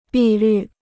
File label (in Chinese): "碧绿", Chinese